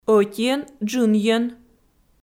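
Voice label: "ӧтиӧн-джынйӧн", Komi, female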